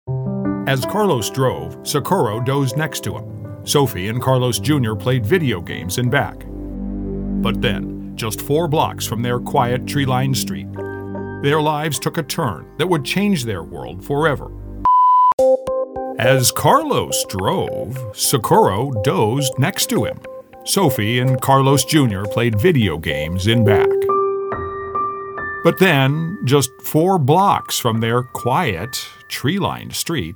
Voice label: DOCUMENTARY & NEWS; reel